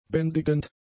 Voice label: "bändigend", German; adjectives

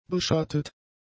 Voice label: "beschattet", German; adjectives

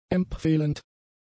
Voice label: "empfehlend", german; adjectives

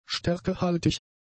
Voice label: "stärkehaltig", German; adjectives

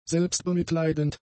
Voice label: "selbstbemitleidend", German; adjectives

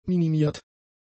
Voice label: "minimiert", German; adjectives

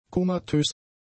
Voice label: "komatös", German; adjectives

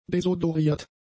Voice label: "desodoriert", german; adjectives

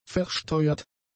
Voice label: "versteuert", German; adjectives

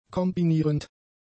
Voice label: "kombinierend", German; adjectives

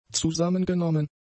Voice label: "zusammengenommen", German; adjectives

